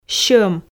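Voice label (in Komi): "сьӧм", Komi, female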